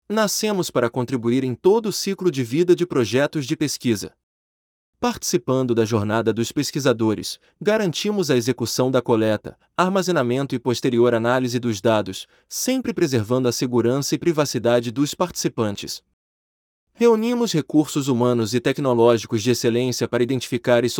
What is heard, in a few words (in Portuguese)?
sobre redbox audio pt BR